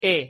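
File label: pronunciation "e", Spanish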